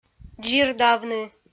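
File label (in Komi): "дзирдавны", Komi, female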